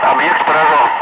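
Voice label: Russian speech, Ka-50 Wingman; rounds on target; DCS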